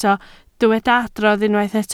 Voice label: "adrodd", Welsh

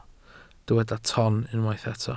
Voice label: "ton", Welsh

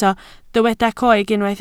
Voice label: "coeg", Welsh

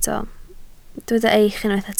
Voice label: "eich" Welsh

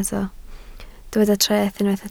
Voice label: "treth" Welsh

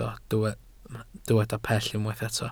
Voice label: "pell", Welsh

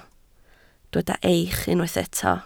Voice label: "eich", Welsh

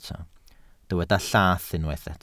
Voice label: "llath", Welsh

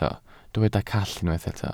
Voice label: "call", Welsh

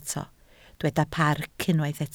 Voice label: "parc", Welsh

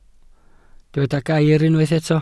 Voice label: "gair", Welsh

